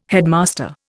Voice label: "headmaster", Indian